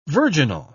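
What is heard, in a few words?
"virginal", English, British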